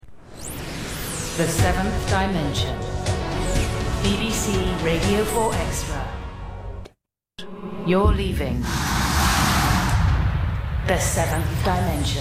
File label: Voice Over